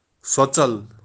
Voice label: "sotsal", Kashmiri